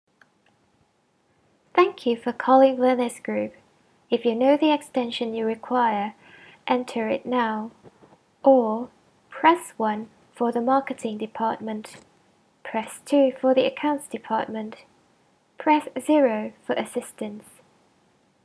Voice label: Telephone; Werners Group

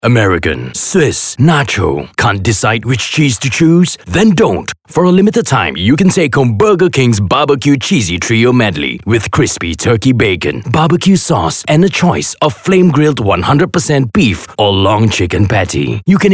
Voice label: Eng Anncr Hard

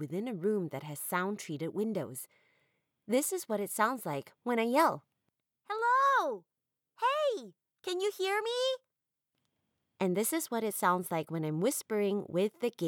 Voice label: home studio sample baby bottle

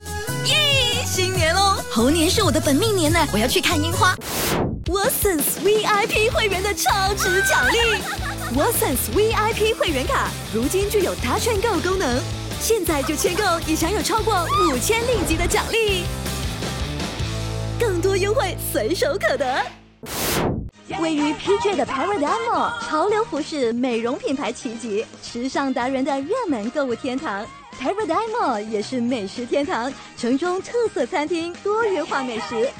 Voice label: Energetic; Watsons Paradigm

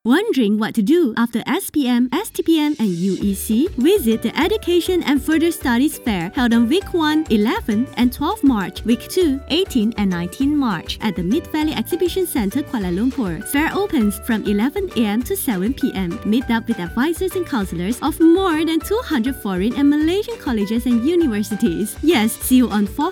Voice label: Eng music; Exhibition

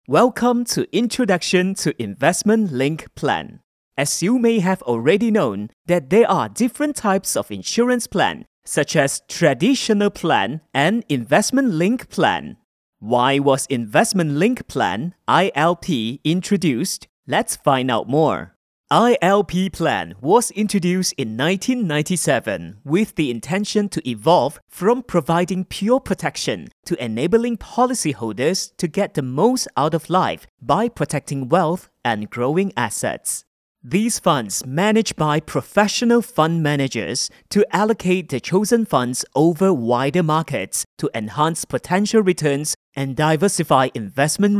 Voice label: English - Narration (Slower Speed)

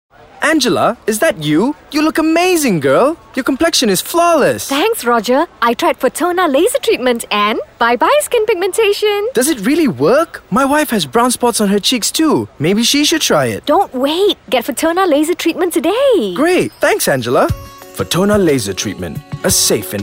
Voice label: Eng; Fotana

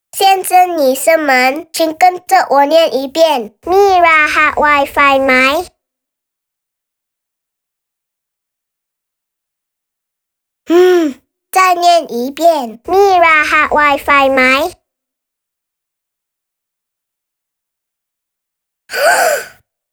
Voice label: Thai Mand; Maxis

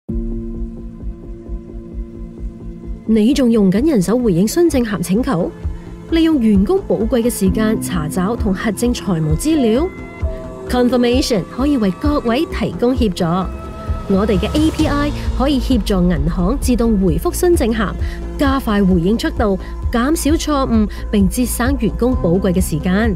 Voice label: Confirmations Cantonese; API

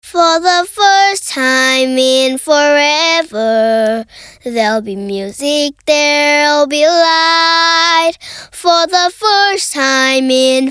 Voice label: ENG

